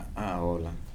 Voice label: "that person is cool", Kibembe